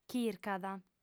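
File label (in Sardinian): "chircat", female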